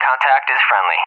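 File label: "contact is friendly", English speech; AWACS Messages; DCS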